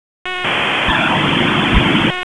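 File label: Raleigh Police Northeast District Dispatch; p25; wake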